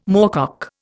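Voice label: "moorcock", Indian English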